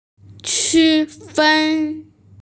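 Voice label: "qu fen", Mandarin Chinese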